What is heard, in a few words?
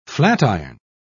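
"flatiron", British English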